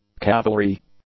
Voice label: "cavalry", English; nouns

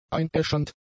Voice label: "einäschernd", german; adjectives

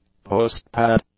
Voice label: "post-pad", English; nouns

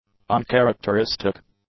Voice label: "uncharacteristic", English; adjectives

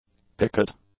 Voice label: "picket", English; nouns

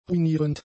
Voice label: "ruinierend", German; adjectives

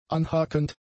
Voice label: "anhakend", German; adjectives

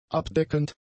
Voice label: "abdeckend", German; adjectives

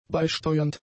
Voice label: "beisteuernd", German; adjectives

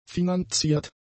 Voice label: "finanziert", German; adjectives

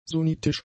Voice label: "sunnitisch", German; adjectives

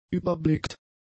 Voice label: "überblickt", German; adjectives

